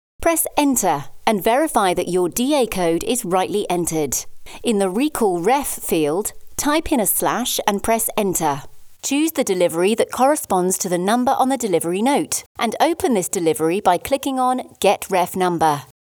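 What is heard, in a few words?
English British, female, e learning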